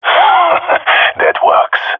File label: compliment, jungle male epic; vo; agents; Source Model Packs; Counter Strike Model Pack; CS2